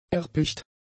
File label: "erpicht", German; adjectives